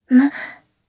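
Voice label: Vox Japanese; Kara; kingsraid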